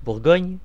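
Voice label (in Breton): "bourgogne", Breton; france region; gcompris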